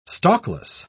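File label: "stalkless", British English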